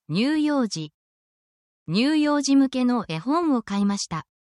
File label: japanese talking about family and relations